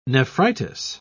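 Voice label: "nephritis", British English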